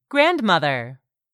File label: "grandmother", English; 3000 words